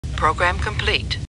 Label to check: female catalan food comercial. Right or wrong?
Wrong